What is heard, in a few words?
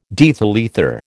"diethyl ether", American